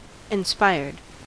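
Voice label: "inspired", US English; pronunciations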